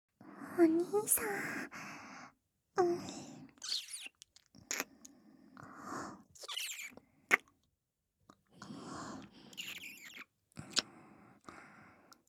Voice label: 超密着両耳囁きで甘々すきすきピロートーク 寝息つき, ASMR

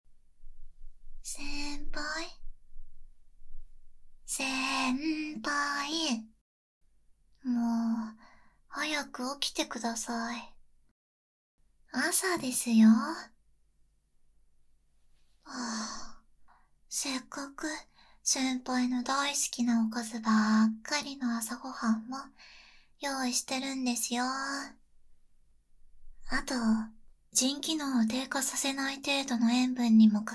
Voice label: おはよう, アラーム音声; ネフェルー

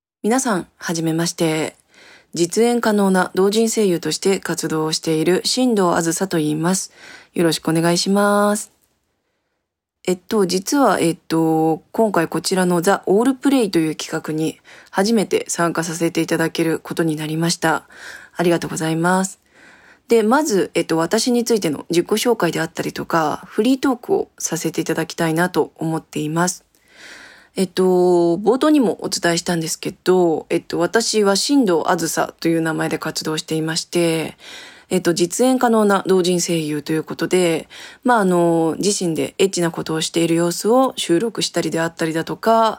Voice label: 自己紹介, ASMR

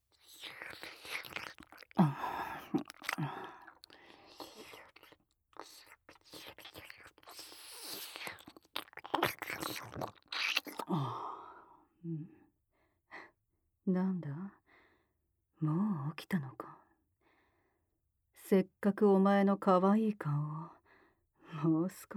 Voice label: えっちな…目覚ましアラーム音声

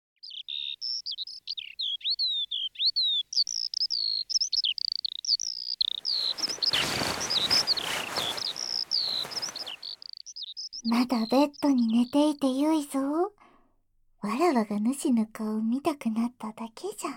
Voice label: 姫の膝枕で優しく耳かき＆耳ふー

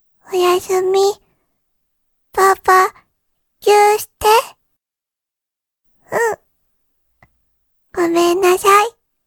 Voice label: 日曜 娘, おやしゅみ

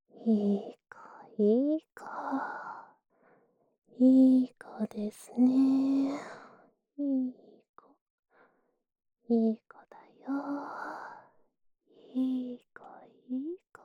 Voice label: いいこいいこ 右, ASMR

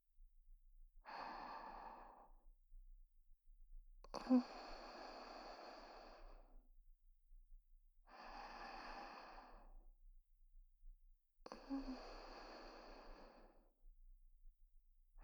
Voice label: 囁きと耳舐め; マン毛を感じながら囁きと耳舐め、甘やかし手コキ

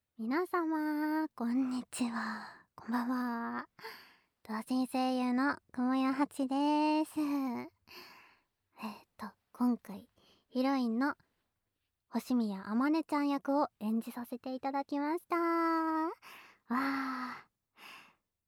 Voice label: フリートーク

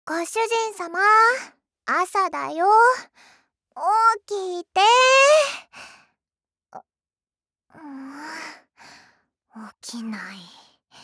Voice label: シチュエーションボイス

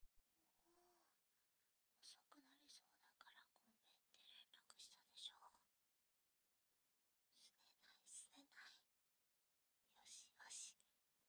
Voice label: ささやき; 【甘々】歳上彼女はあなたがとても大切なようです

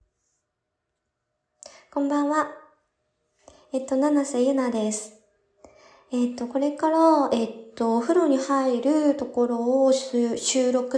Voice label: 風呂実録, 喋りながらお風呂に入ってる音声

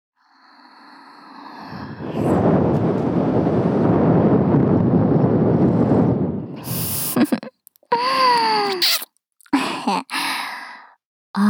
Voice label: スマホ用目覚ましボイス, ASMR; SE有り

